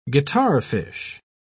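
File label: "guitarfish", British English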